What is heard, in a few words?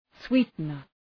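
"sweetener", English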